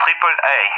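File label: "AAA", English speech; Allied Flight; DCS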